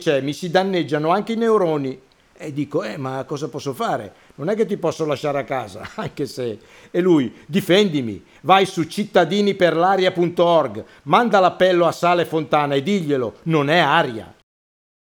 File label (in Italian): spot cervello; Giovanni Storti